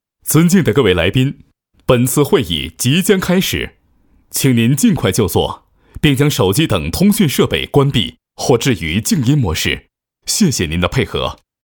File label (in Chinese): 男 语音提示 温馨提示; 尊敬的各位来宾